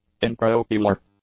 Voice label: "intraocular", english; adjectives

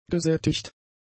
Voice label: "gesättigt", German; adjectives

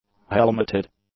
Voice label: "helmeted", English; adjectives

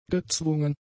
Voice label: "gezwungen", German; adjectives

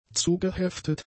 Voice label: "zugeheftet", German; adjectives

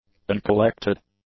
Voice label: "uncollected", English; adjectives

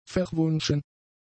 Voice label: "verwunschen", German; adjectives

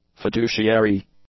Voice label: "fiduciary", english; adjectives

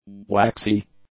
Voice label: "waxy", English; adjectives

